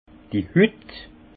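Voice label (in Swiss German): "hut", alsace Haut-Rhin; vin; peau pellicule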